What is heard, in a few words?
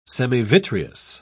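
"semivitreous", English, British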